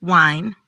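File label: "Wine", pronunciation; drinks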